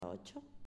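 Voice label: "Ocho", Spanish, female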